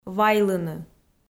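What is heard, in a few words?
"вайлыны", Komi-Zyrian, female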